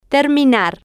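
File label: "terminar", Spanish, female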